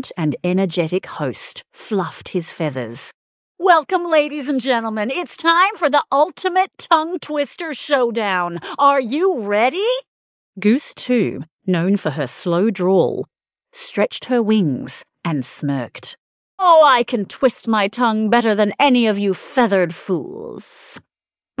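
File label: Three Grey Geese female aus story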